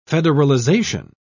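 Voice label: "federalization", British English, dictionary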